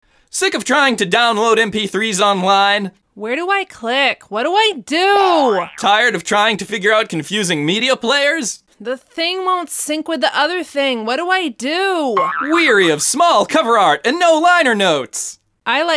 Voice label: infomercial